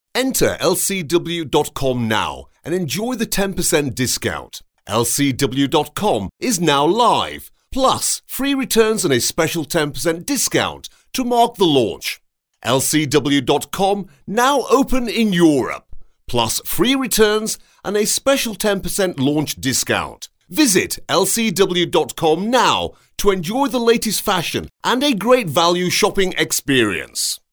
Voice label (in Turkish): İNGİLİZCE